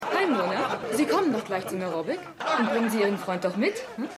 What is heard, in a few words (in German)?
Aerobic Frau; Stimmproben